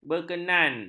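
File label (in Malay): "berkenaan", Malay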